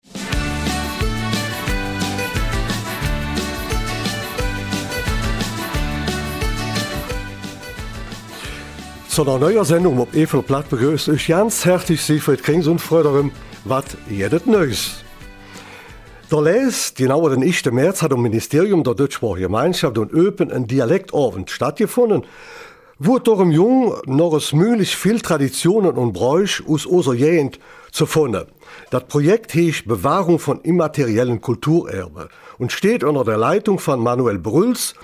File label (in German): Eifeler Mundart; Blanc Moussi